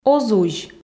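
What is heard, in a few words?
"оз узь", Komi, female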